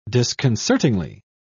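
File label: "disconcertingly", English, British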